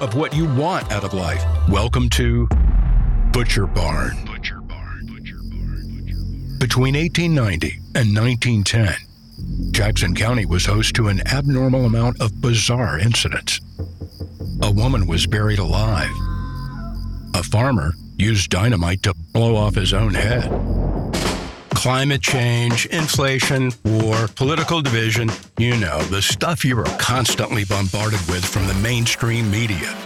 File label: podcast